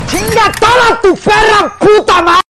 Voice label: angry mexican